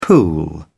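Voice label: "pool", British English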